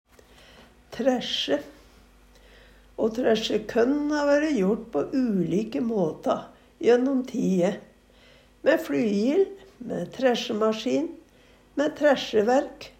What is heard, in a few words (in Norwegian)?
træsje, Numedalsmål; O træsje kønn ha vøre jort på ulike måta jønnom ti'e; mæ fLyjil, må træsjemaskin